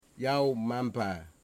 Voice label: "house door", Wamut